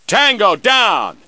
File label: MALE ENEMY DOWN; combatarms2